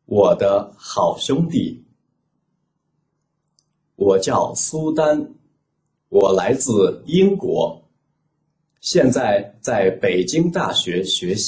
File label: chinese reading